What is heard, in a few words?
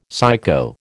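"psycho", American English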